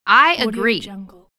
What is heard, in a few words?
female saying i agree